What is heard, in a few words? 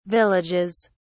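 "villages", English, British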